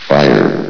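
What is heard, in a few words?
"fire", male synthesized voice; vox; source engine; hl1; video games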